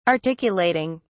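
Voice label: "articulating", English, British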